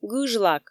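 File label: "гыж лак", Komi, female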